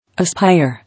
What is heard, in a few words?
"Aspire", English